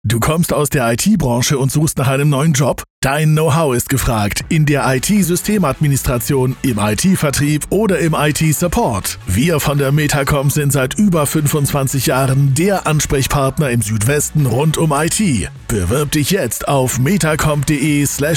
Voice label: Recruiting Spot; Metacomp; Festanstellung; DIE NEUE 107.7